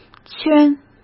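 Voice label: "圈", Mandarin Chinese; chinese flashcards; hsk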